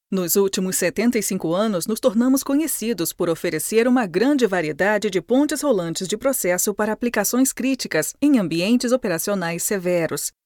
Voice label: portuguese brazilian documentaries